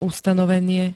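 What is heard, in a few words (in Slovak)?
"ustanovenie", Slovak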